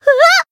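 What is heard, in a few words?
damaged, Japanese; melody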